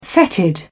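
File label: "fetid", English, British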